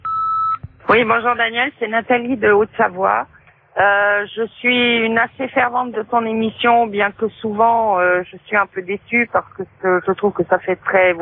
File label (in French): repondeur; Femme de patron